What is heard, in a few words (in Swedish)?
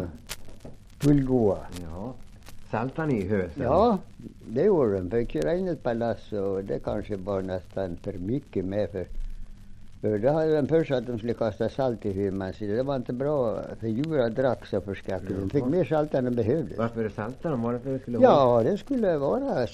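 Hed Västmanland, svenska sagor dialekter; Folkminnen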